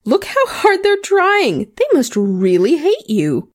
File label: Female Constable combat taunt; SyrinScape; Missing Magistrate